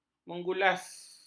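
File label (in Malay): "mengulas", Malay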